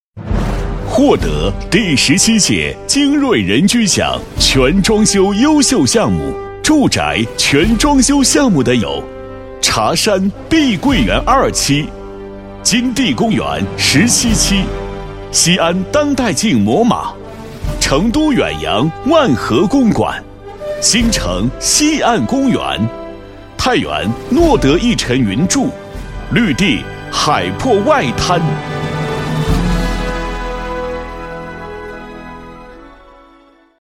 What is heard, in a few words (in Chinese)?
男-颁奖-唱奖; 精瑞奖